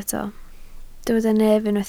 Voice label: "nef", Welsh

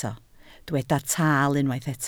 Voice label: "taal", Welsh

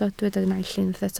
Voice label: "naill", Welsh

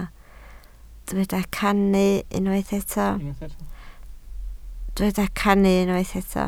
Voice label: "cannu", Welsh

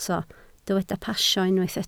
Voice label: "pasio", Welsh

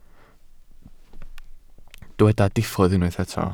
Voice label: "diffodd", Welsh

